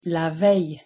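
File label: "la veille", French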